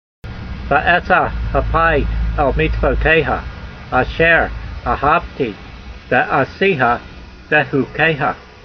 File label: voice, hebrew language; Psalm 119:48; ve'e'sa-hapai el-meetsvoteyha a'sher a'havtee ve'a'seeha ve'hukeyha